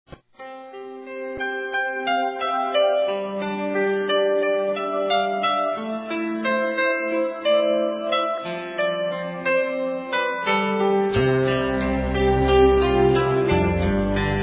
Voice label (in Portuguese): Parabéns Futura Mamãe Feminino; Telemensagens; Felicitações; Telemensagens 2017